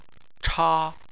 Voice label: "cha1", chinese